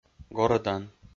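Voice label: "горӧдан", Komi-Zyrian, male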